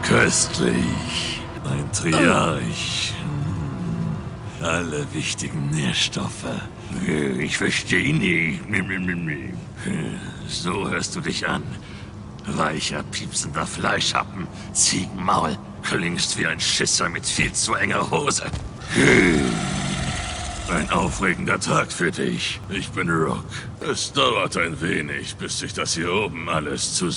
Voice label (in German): Synchron Tiefe Charge, German; Game; Immortals of Aveum - Videospiel - Tiefe Charge